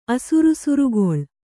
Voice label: "ಅಸುರುಸುರುಗೊಳ್", Kannada